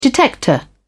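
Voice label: "detector", British English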